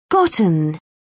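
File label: "gotten", English, British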